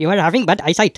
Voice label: "missed", Speech; Worms Armageddon; The Raj